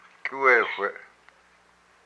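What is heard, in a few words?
"an egg", Hupa, male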